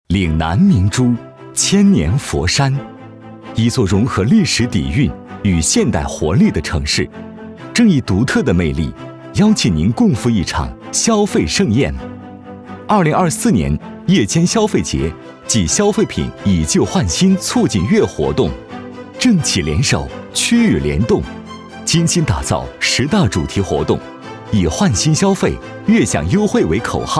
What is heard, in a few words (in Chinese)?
男 消费节 夜间消费 轻松